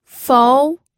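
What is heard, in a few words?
"fou", pinyin, second tone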